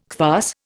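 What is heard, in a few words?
"kvass", Indian English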